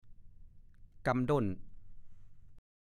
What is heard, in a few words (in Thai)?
"กำด้น", ภาษาโคราช